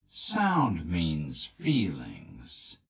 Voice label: male